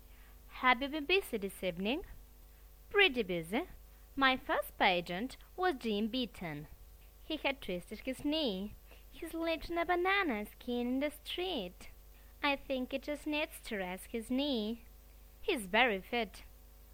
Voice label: conversation between two doctors

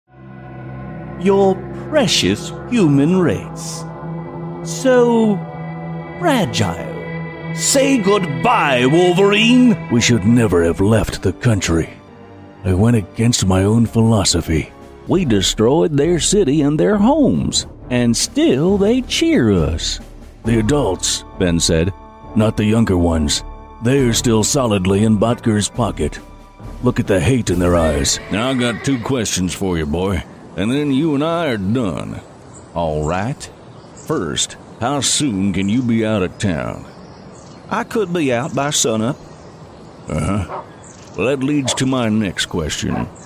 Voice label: vo character acting

